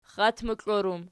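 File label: "xxatmoklor" plural, Archi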